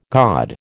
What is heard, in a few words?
"god", American